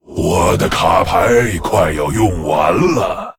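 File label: Male, Chinese; LowCards; Lich; zh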